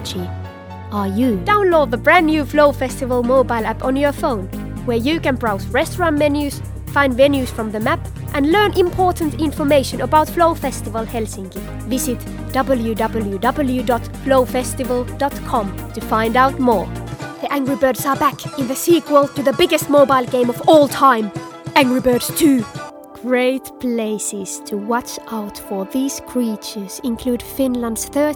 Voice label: female Finnish English with Finnish accent commercial compilation; Devine Voices